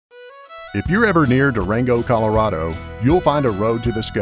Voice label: Commercials